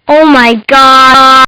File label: Kid Says OMG; Talking; Voice; Partners In Rhyme